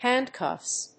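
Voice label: "HANDCUFFS", English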